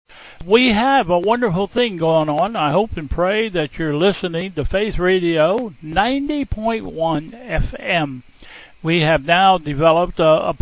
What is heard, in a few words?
Welcome and Announcements